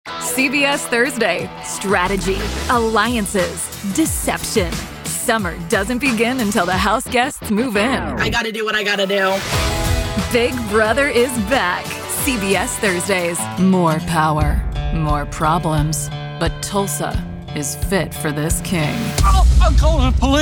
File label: PROMO